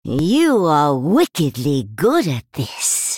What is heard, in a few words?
female night elf well played